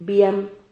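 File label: "biam", Spanish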